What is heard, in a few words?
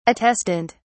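"attestant", English, British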